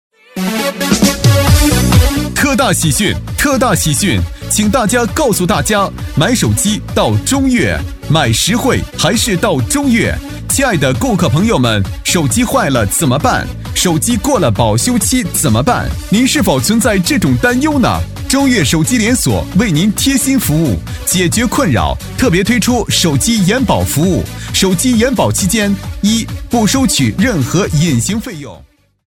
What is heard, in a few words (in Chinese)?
手机 男 激情浑厚; 促销